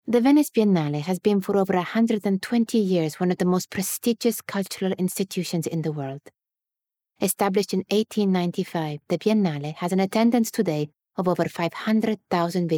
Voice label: Italian Accented English